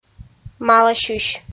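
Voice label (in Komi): "маласьысь", Komi, female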